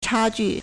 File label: "cha1ju4", Chinese